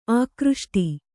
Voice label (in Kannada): "ಆಕೃಷ್ಟ", Kannada